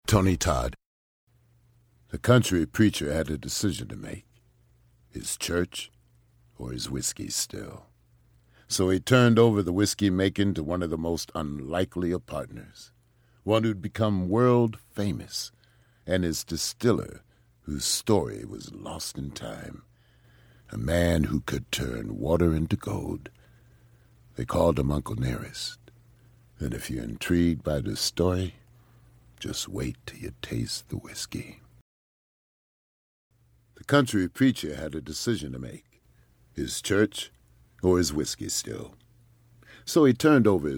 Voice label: animation demo